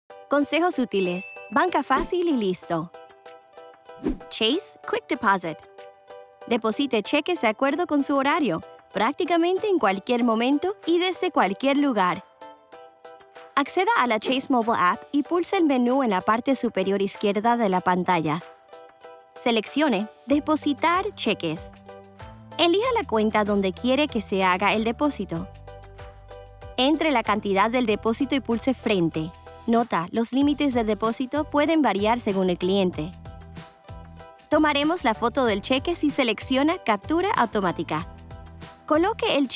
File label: Explainer Spanish